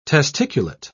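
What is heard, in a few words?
"testiculate", English, British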